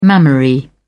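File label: "mammary", English, uk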